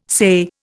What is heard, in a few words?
"SAYE", Indian